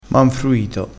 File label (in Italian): "manfruito", crespinese, vocabolario dialettale